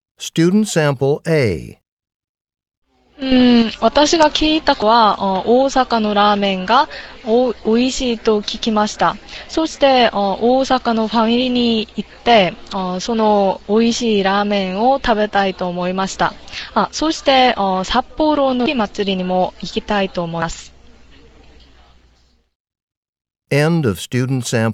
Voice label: japanese conversation

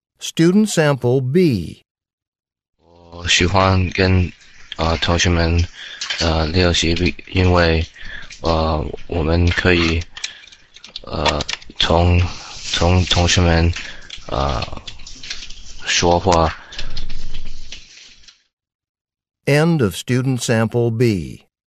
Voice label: chinese conversation

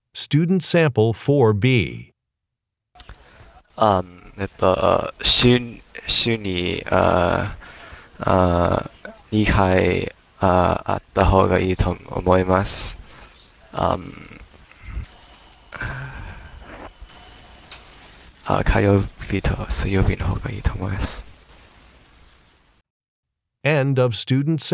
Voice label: japanese conversation

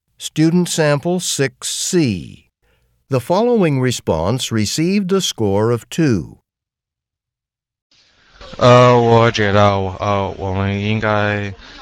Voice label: chinese conversation

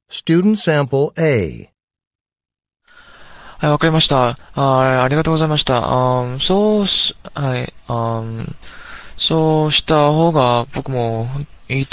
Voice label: japanese return telephone call

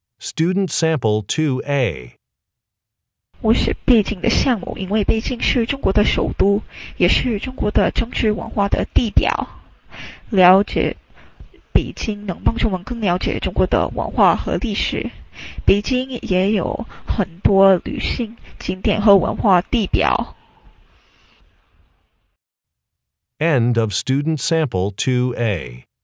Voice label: chinese conversation

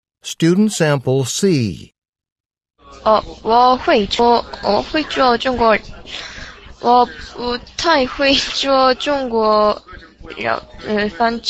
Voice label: chinese conversation

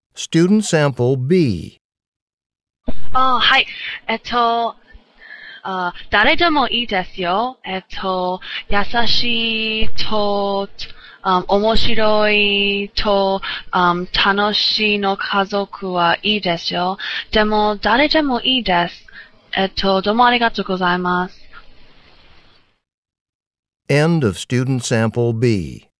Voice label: japanese conversation

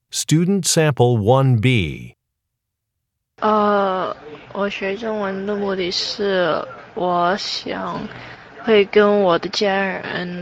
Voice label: chinese conversation

